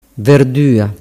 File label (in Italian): "verdua", dialettu